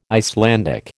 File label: "Icelandic", American